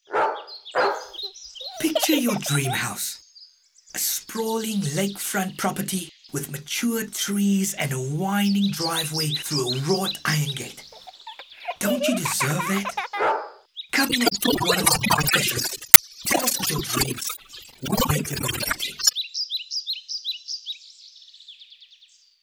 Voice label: Soft Sell